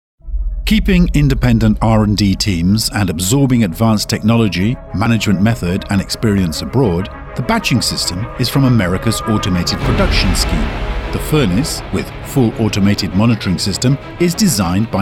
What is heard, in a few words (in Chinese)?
外籍 母语 男 浑厚 高端 浑厚 大气